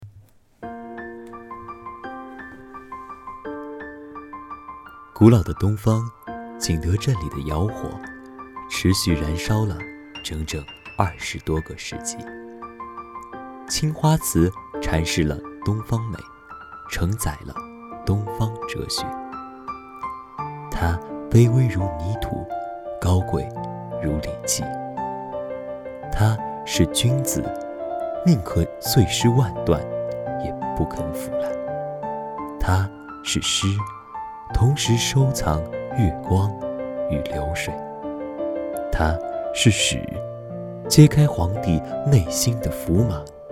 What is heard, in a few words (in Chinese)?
宣传片配音; 青花瓷宣传片配音试音后期版